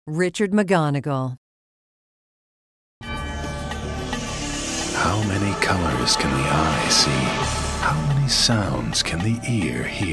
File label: commercial; Innovative LA